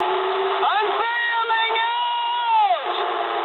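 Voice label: English bailout mood high; War Thunder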